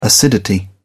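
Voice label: "acidity", British English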